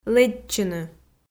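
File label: "лэччыны" Komi, female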